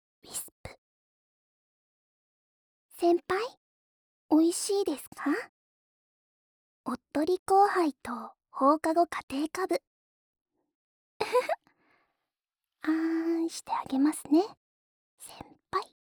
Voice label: タイトルコール

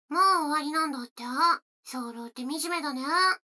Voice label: 女の子台詞

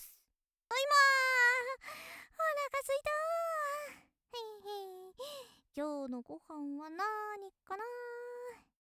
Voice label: 元気JK ただいま

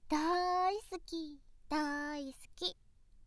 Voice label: だーーい好き！ だーーい好き！, ASMR; 大好きづくし

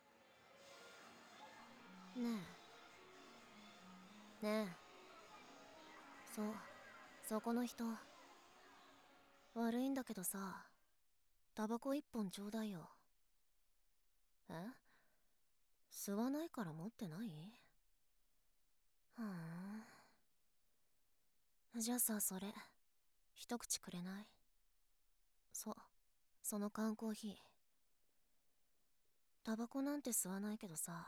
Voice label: 出会い 効果音入り

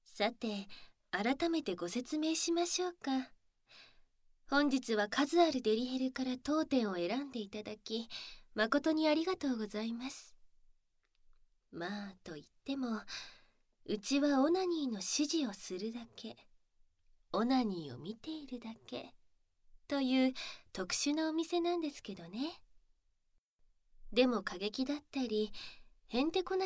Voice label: オープニング; 効果音有り

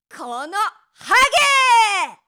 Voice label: この、ハゲ～, 怒鳴る女・怒る女

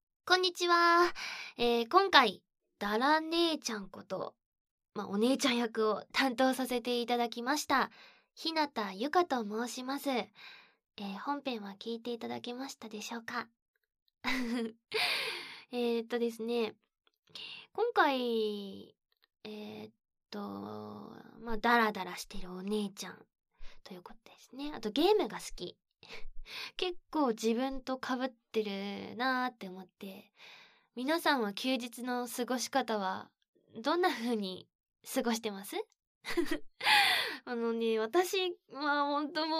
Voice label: フリートーク; だらだらお姉ちゃん

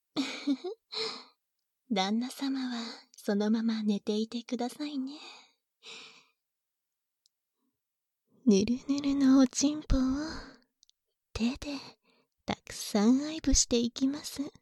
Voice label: 耳舐め手コキで激しく恩返し（バイノーラル収録 効果音あり）, ASMR; 四日目

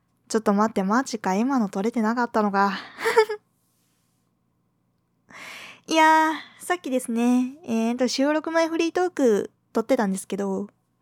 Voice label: 収録前フリートーク; 次回作の予告

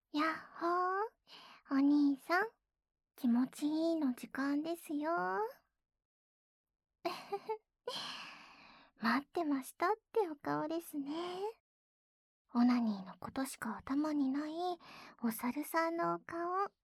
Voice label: 耳舐めで身体おねだり_左右反転_効果音あり

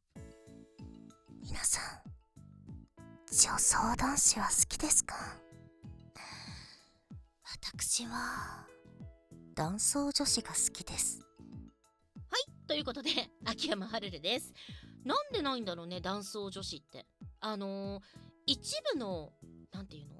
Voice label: フリートーク BGM入り

